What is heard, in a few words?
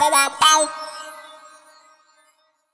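effects talk, Funky Vocals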